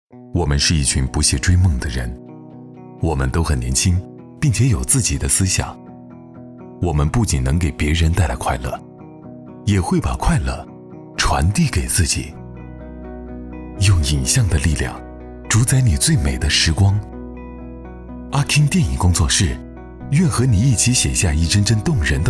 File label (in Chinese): 男 广告 电影工作室 商务感, 国语男声配音 暖声录音